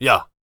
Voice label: warrior conversation emotion agree

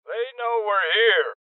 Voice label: Voices Alarm State Male Old; rebel cops 2019 gamerip

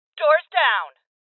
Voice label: Door Kick, Female; rebel cops 2019 gamerip

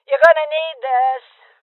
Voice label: Voices Throw Clip Female Old; Rebel Cops

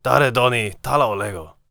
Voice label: warrior conversation emotion neutral

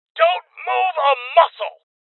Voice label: Voices Freeze Male

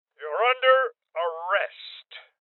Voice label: Order To Surrender Male Old

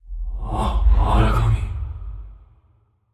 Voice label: confused; aragami

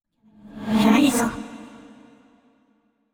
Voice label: neutral short; yamiko

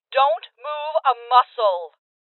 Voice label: Voices Freeze Female

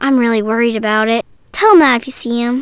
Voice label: Girl Worried; Warehouse

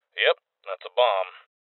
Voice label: Voices Bomb Spotted Male; rebel cops 2019 gamerip

